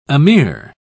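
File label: "ameer", English, British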